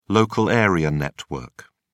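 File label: "local area network", English, British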